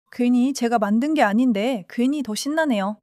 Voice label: excitement; monologue